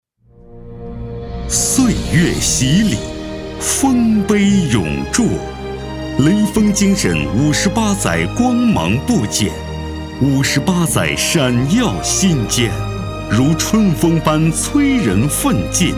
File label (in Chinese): 浑厚大气; 雷锋精神